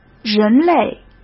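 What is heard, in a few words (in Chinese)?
"人类", Mandarin Chinese; hsk; chinese-flashcards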